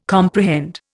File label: "comprehend", Indian